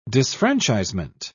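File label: "disfranchisement", British English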